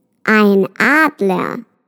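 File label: "eagle", German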